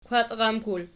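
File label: "kwatramkul", nominative, Archi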